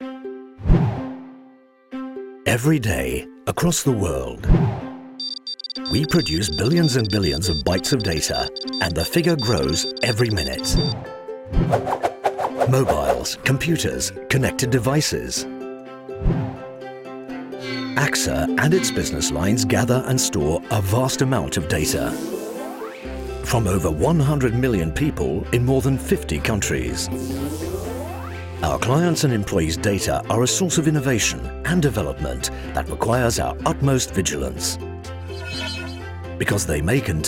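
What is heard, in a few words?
trailer, British; AXA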